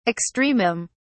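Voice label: "extremum", British English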